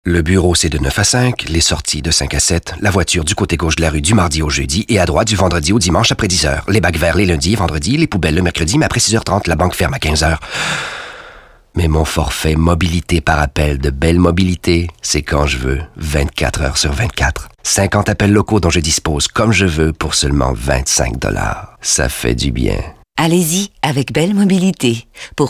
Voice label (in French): demo de voix